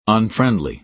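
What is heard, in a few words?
"unfriendly", American English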